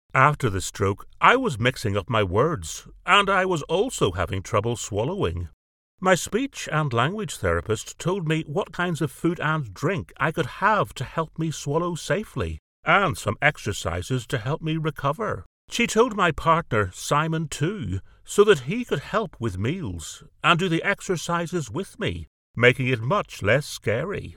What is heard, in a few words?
British Male age 60 s